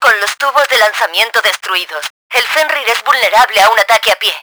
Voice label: launcher down, Spanish; Battle Engine Aquila; XBOX

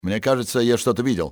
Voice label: thought i saw, russian soldier; XBOX

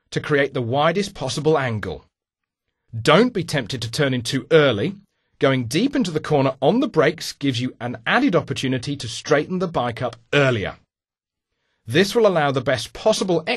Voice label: hairpin english; MotoGP 3

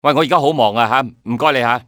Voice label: "im busy", male; XBOX; civ

